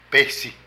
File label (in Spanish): Presagio, Zapoteco de Petapa; Religión; Vocabulary